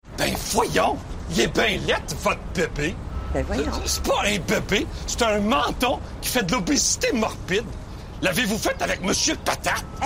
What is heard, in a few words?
Angry Man Ringtone